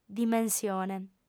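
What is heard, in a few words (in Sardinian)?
"dimensione", female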